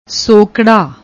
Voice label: "sokra", Punjabi

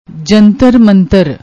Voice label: "jantar mantar", Punjabi

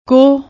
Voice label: "koh", Punjabi

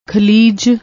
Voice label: "khaleej", Punjabi; ਖਲੀਜ

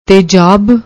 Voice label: "tejaab", Punjabi; ਤੇਜਾਬ

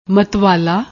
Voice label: "matvaala", Punjabi

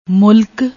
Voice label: "moolak", Punjabi

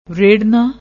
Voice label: "rernaa", Punjabi

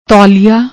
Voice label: "taouliaa", Punjabi; ਤੌਲੀਆ

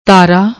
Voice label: "taara", Punjabi

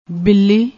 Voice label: "billi", Punjabi

